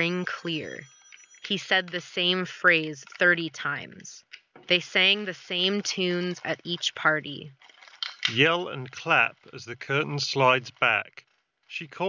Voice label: Astro A30 Office microphone sample